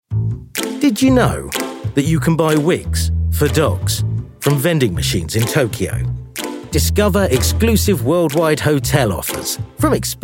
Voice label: commercial